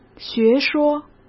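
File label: "学说", Mandarin Chinese; chinese flashcards; hsk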